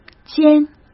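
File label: "煎" Mandarin Chinese; hsk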